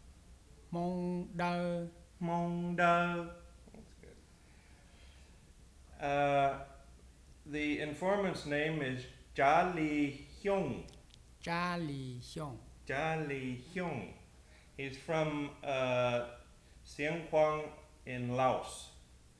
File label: White Miao